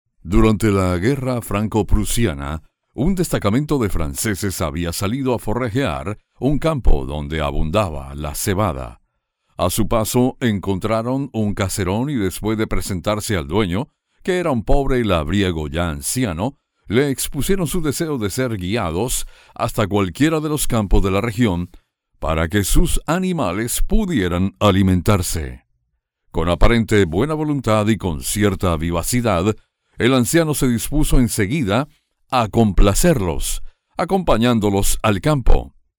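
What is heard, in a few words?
Spanish Latin America male voice over, narrative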